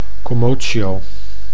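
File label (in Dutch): "commotio", Dutch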